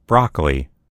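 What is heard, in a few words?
"broccoli", American English; gcompris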